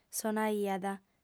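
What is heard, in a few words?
"sonaiat", female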